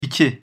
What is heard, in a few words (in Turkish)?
"iki", Turkish